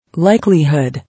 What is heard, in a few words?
"Likelihood", English